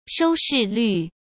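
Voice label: "收视率", Mandarin Chinese; hsk; chinese-flashcards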